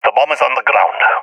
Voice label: sees dropped bomb, balkan; vo; balkan epic